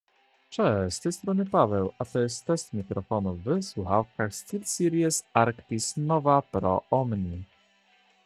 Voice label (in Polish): steelseries arctis nova pro omni test mikrofonu stock glosno; test recenzja